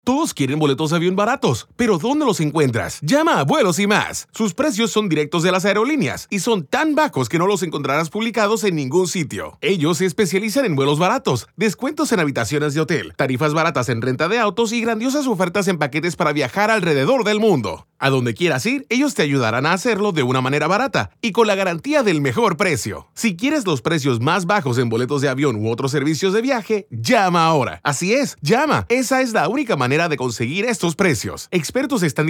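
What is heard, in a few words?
Spanish, commercial, voice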